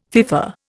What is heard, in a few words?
"FIFA", Indian